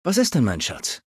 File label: Male adult default